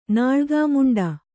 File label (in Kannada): "ನಾಳ್ಗಾಮುಂಡ", Kannada